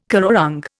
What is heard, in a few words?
"currawong", Indian